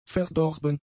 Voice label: "verdorben", German; adjectives